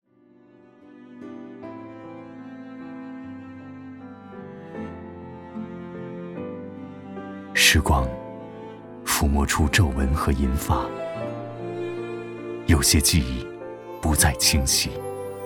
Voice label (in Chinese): 内心独白 温暖走心; 中信银行